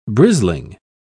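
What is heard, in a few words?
"brisling", British English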